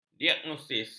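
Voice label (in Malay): "diagnosis", Malay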